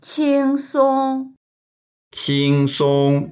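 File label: "qing1song1", Mandarin Chinese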